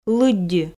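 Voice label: "лыддьы", Komi, female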